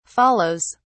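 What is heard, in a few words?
"follows", British English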